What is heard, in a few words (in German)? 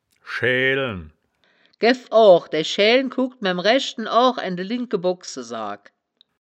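"schaeaelen", Wittlicher Wörterbuch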